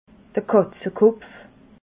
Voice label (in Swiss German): "katzekopf", alsace